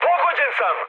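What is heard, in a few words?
Serbian aircraft damaged mood med, speech; War Thunder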